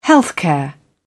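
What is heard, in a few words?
"healthcare", British English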